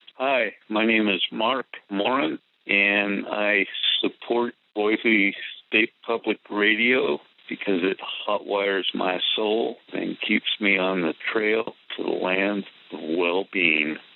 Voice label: Radio Testimonials; Boise State